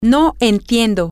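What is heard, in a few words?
I dont understand, spanish, female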